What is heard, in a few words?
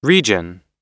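"region", American English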